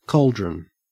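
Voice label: "cauldron", Australian English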